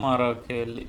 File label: "marakele", Andamanese